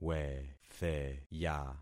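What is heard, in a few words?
"wetheya", concatenated syllables; Affixing experiment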